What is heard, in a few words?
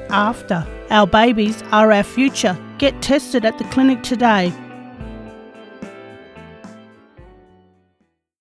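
Syphilis FEMALE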